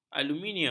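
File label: "aluminium", Malay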